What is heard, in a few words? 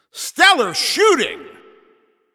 Announcer Especially Good Shot